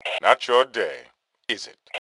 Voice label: Taunt Aww, Male; T2 Male 02